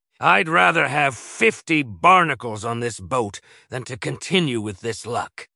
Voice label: upset; schooner; fertig classic games